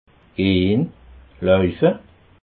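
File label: "gehn", Alsatian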